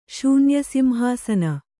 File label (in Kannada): "ಶೂನ್ಯಸಿಂಹಾಸನ", kannada